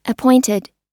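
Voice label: "appointed" US English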